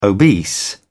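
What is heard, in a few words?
"obese", British English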